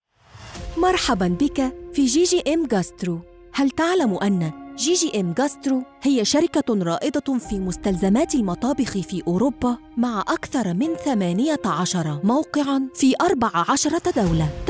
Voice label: arabic narration